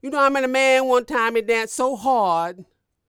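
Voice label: dialog, female spoken; ILIO; Vocal Planet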